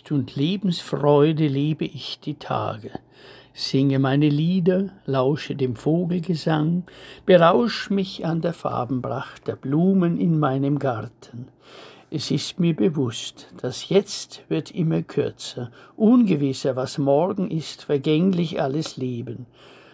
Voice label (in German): Sommer ist, Lesung